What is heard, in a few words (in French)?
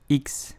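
"X", learn French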